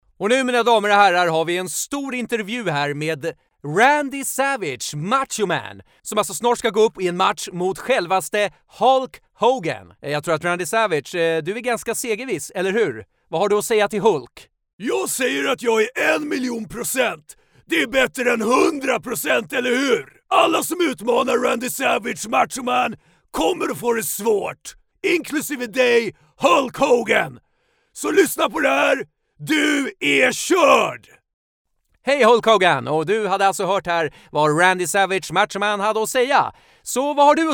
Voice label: swedish, voice actor; professioneller schwedischer